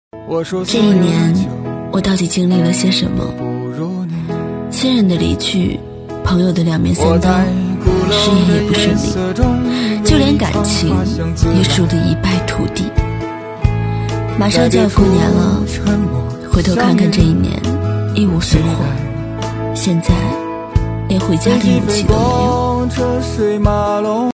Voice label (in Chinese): 情感电台; 这一年